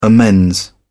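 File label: "amends", English, British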